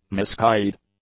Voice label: "miscarried", English; adjectives

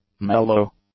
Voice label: "mellow", english; adjectives

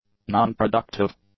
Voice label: "non-productive", english; adjectives

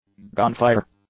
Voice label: "gunfire", English

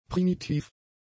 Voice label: "primitiv", German; adjectives

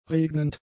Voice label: "regnend", German; adjectives

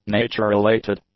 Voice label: "nature-related", english; adjectives

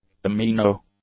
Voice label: "amino", English; adjectives

